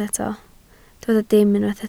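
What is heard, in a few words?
"dim" Welsh, spoken word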